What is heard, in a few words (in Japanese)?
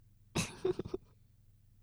妹 台詞